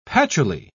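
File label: "patchouli", British English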